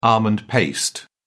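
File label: "almond paste", British English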